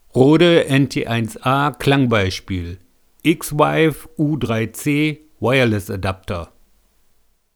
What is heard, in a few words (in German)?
Mikro NT1A Klangbeispiel Stimme Funk; XVive